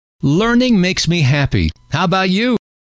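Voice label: learning makes me happy how about you, male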